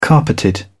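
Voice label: "carpeted", English, British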